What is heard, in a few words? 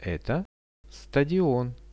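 "this is a stadium", Russian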